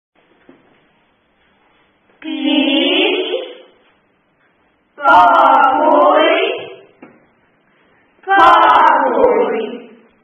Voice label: a recorded Peace world in our native (Polish) language; song; piosenka